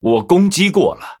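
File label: Male, Chinese; zh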